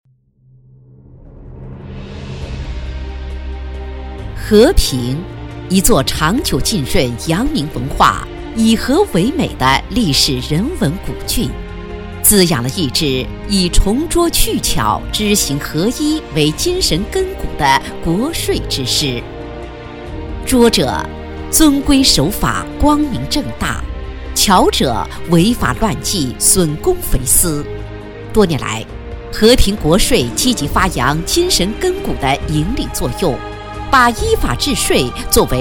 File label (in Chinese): 女 专题; 和平县国家税务局